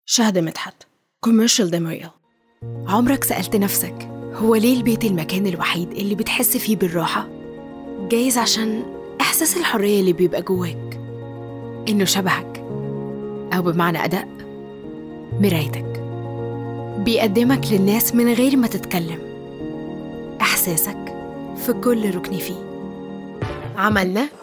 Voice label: Egyptian commercial